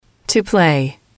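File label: "to play", English, female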